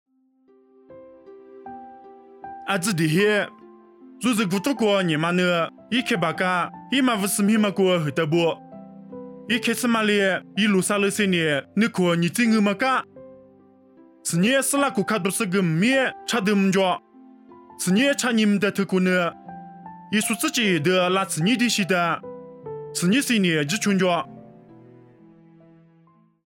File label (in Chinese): 凉山彝语; 以色列以马忤斯; nuosu